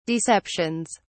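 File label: "deceptions", English, British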